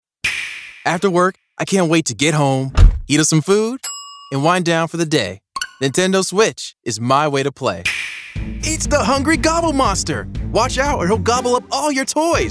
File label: Voiceover Commercial